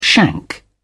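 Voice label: "shank", British English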